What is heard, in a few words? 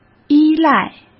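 "依赖", Mandarin Chinese; chinese flashcards; hsk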